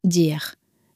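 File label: "dire", French, female; flashcards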